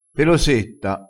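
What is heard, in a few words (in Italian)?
"pelosetta", dialettale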